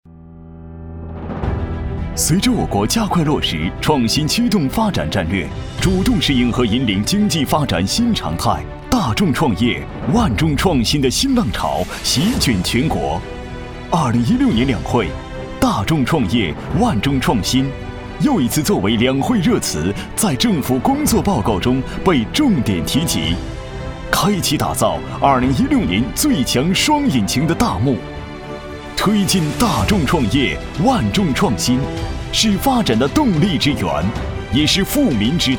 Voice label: 男, 专题

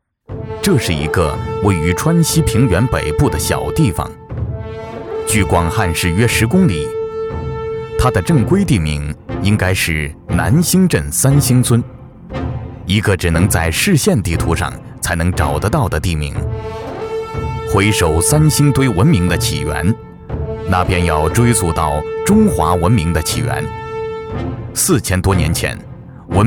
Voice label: 男, 纪录片; 三星堆

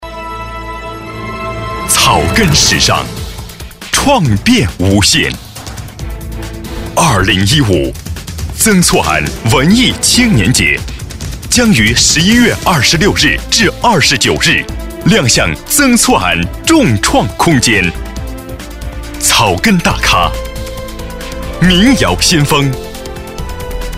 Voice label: 男 专题 城市宣传片; 曾厝垵

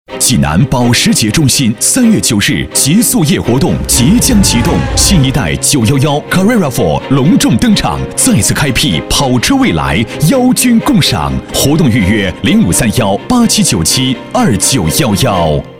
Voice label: 男 广告 激情; 新保时捷

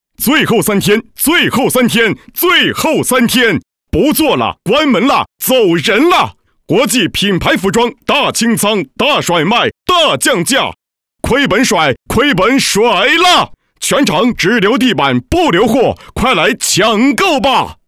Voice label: 男 促销; 店铺清仓

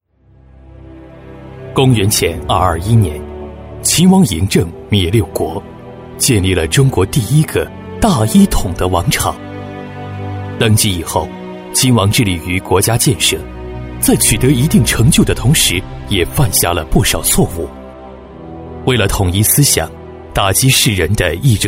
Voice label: 男 纪录片（沉着讲述）; 中医院

